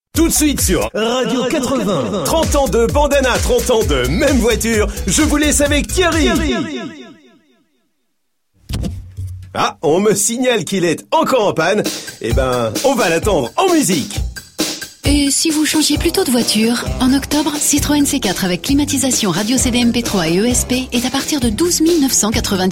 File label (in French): radio pub spot